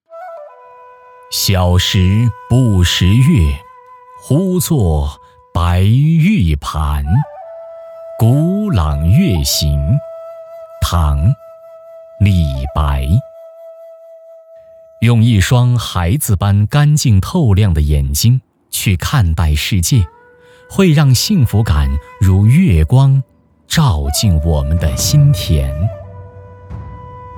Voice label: 男国语, 古诗朗诵

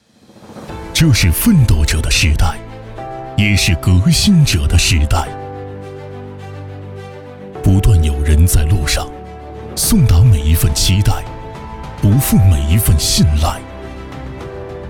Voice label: 男国语, 专题; 奋斗者

